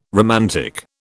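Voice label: "romantic", British English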